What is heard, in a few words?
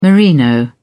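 "merino", British English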